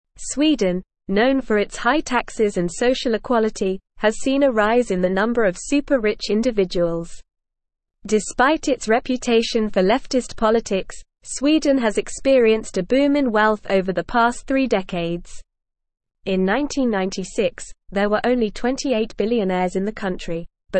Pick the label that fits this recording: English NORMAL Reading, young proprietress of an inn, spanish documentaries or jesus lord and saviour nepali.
English NORMAL Reading